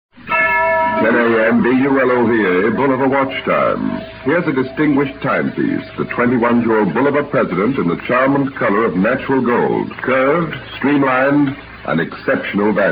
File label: Vintage Commercials; Bulova